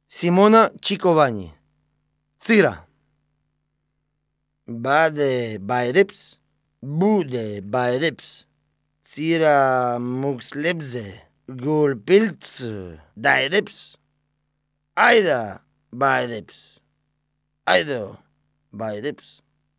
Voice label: sound poem; Baku Symphony of Sirens